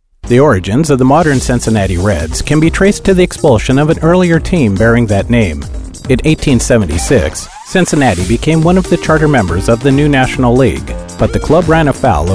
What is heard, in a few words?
NARRATION